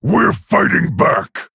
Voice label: Male Orc Play